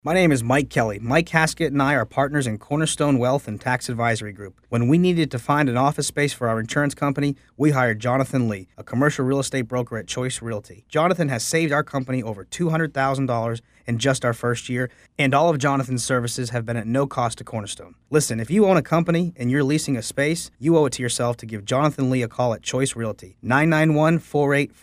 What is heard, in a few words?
Ad